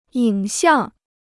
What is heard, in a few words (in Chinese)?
"影像", Chinese